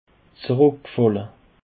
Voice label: "zuruckfalle", Alsace

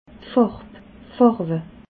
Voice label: "farb", alsace

